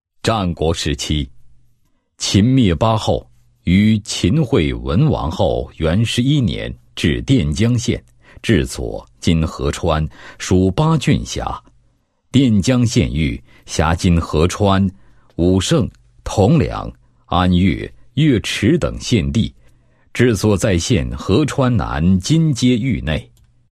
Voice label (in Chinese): 男-城市地区宣传; 历史沿革 合川历史介绍